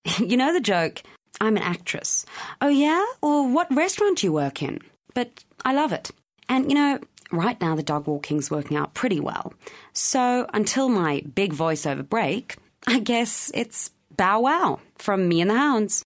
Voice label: English Bright